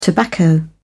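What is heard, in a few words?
"tobacco", British English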